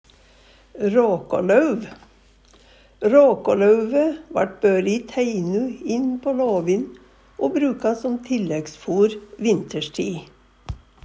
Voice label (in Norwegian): rakalauv, Numedalsmål; Råkålauve vart børe i teine inn på låvin o bruka som tilleggsfor vintersti'.